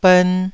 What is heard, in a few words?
"bern1", taiwanese